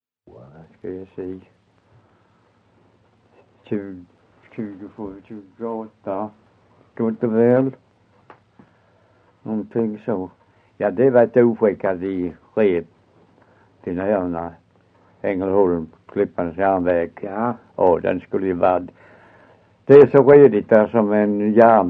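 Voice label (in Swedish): Össjö Skåne, Svenska sagor, dialekter; Folkminnen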